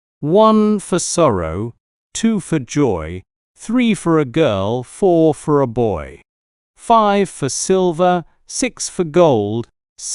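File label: male British, classic rhymes; One For Sorrow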